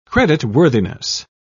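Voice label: "creditworthiness", British English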